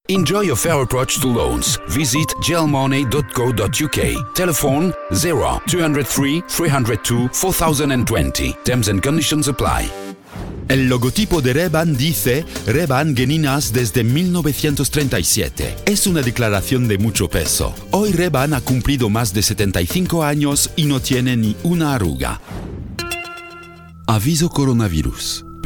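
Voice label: male, French accented English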